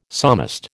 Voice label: "psalmist", American